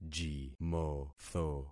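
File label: "jimotho", concatenated syllables; Affixing experiment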